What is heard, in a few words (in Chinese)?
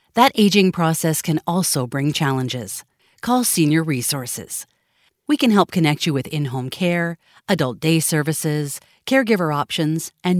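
外籍女声 成熟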